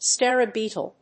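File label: "scarab beetle", English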